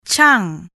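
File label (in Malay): "cang", Malay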